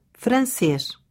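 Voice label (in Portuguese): "francês", Portugisisk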